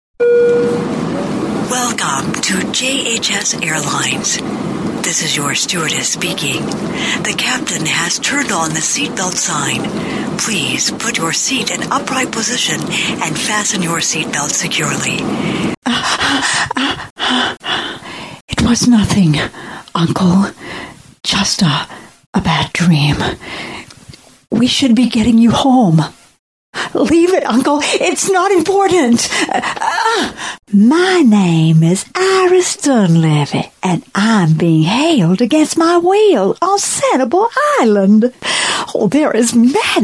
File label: Animation